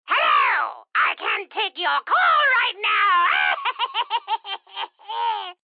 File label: Phone Witch, Female, Telephone Voice; VoiceBox